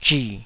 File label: "zhi3", Taiwanese